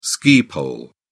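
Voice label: "ski pole", British English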